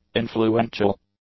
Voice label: "influential", English; adjectives

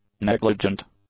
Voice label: "negligent", english; adjectives

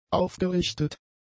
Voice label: "aufgerichtet", German; adjectives

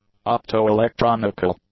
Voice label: "opto-electronical", English; adjectives

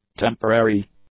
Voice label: "temporary", English; adjectives

